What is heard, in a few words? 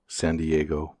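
"san diego", English, US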